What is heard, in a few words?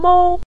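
"mou", Mandarin Chinese neutral tone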